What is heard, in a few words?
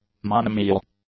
"monomial", English; adjectives